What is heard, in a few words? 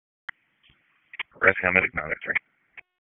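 EMS Dispatch, p25; wake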